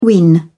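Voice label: "win", British English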